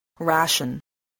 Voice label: "ration", english